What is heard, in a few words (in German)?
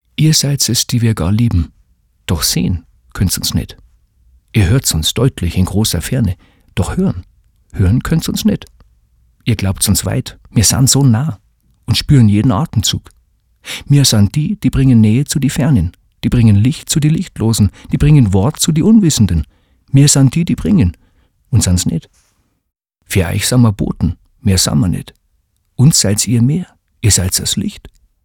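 bayrisch, Sprecher, Männer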